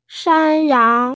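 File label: "shan yang", Mandarin Chinese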